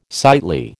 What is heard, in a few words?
"sightly", American